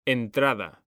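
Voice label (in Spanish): "entrada", Spanish, male; mekanta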